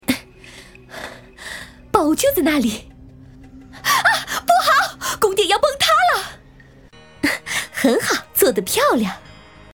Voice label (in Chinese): 女 动画 游戏 游戏